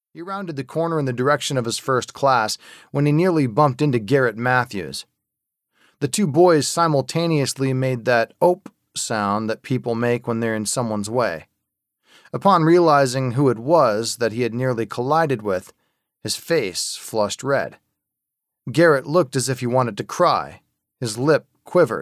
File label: Audio Book Coming of Age High School